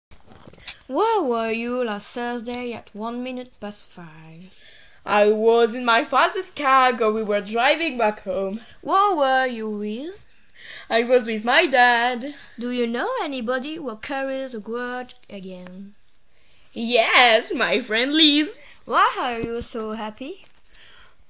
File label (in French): dialogue anglais